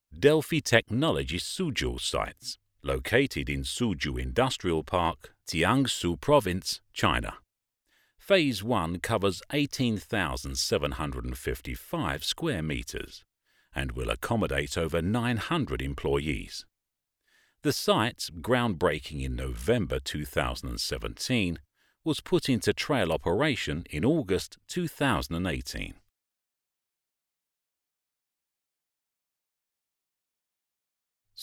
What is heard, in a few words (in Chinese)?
生产流程解说词